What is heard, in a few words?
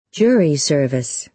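"jury service", American English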